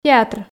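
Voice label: "театр", Komi, female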